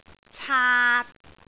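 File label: "caat3", Cantonese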